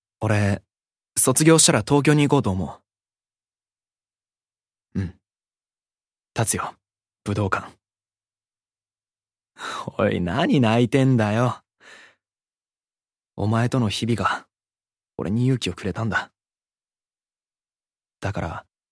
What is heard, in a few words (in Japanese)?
ナチュラル